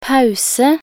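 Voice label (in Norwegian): "pause", Norwegian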